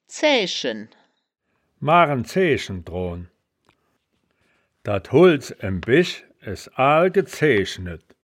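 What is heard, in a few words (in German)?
"zaeaeschen", Wittlicher Wörterbuch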